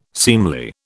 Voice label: "seemly", British

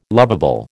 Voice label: "lovable", American

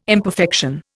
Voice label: "imperfection", Indian

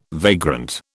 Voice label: "vagrant", British

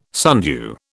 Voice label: "sundew", British

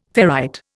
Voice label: "ferrite", Indian

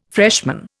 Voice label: "freshman", Indian

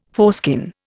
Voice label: "foreskin", Indian

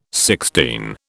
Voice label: "sixteen", British

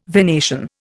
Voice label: "venation", Indian

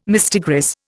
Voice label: "mistigris", Indian

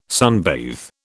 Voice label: "sunbathe", British

